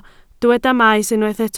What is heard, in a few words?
"maes", Welsh